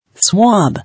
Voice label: "Swab", English